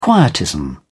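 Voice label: "quietism", British English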